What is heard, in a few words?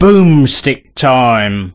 Cheer, voice commands male; svencoop